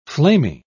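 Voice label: "flamy", British English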